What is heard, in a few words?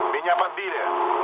aircraft damaged, mood low, speech ru